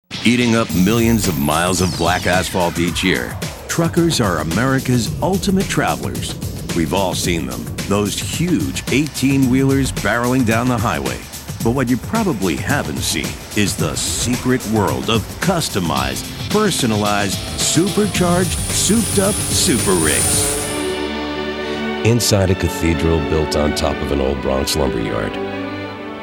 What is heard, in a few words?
Narration